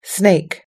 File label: "snake", us english